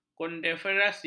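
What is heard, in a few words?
"konfederasi", Malay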